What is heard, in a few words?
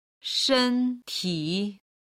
"shen1ti3", chinese